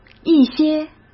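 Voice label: "一些", Mandarin Chinese; chinese-flashcards; hsk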